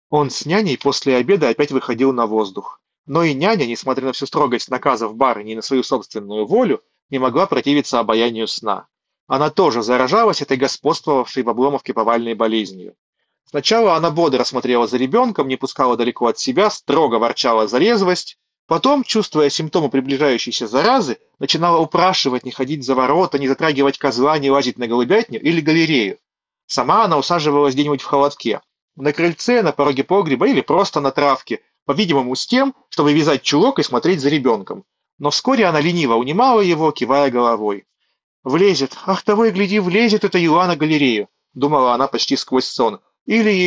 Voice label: Mic; nothing headphone a